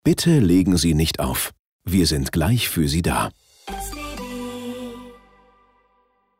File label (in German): Ansage